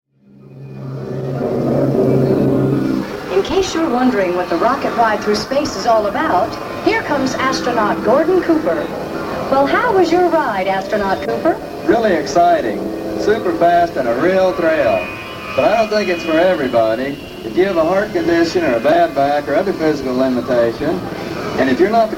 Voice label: Safety spiel; WDW; RCA; Space Mountain; Gordon Cooper